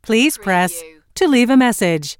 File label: US female phone prompt Please press to leave a message; lynne publishing